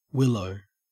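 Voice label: "willow", Australian English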